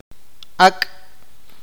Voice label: mojado, awakateko

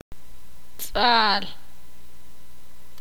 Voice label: "caliente", ixil

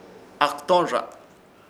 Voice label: "AKTORRZA" cunada, Ishir Chamacoco

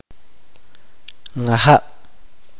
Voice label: "lago", chuj

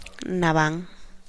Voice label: agitado

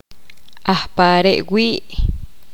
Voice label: poqomam donde

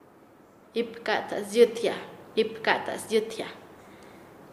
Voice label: "atizar", Nasa Yuwe; paez

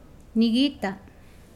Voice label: Nawat palabras ver; pipil

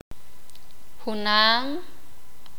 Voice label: "mismo igual", sakapulteko

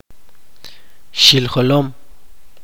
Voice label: "cabello", chuj

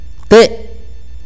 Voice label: palo, akateko

